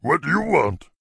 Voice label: what do you want, peon male; PhoenixCraft-1.16.5; PhoenixNPCPack; minecraft